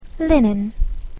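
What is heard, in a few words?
"linen", English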